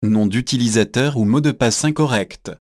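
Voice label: Username Or Password Incorrect, French; OpenCore; AXEFIAudio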